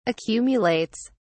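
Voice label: "accumulates", British English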